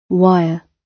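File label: "wire", British English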